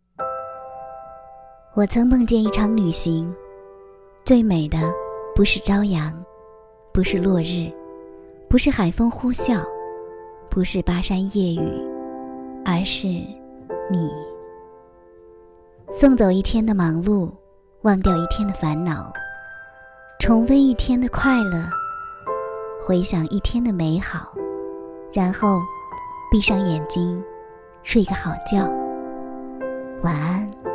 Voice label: 晚间电台

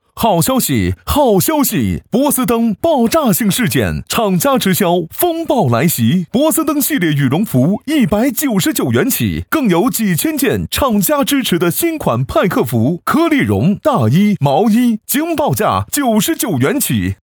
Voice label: 激情叫卖促销; 波司登

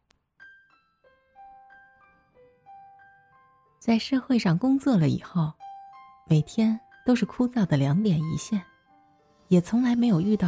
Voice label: 甜美治愈

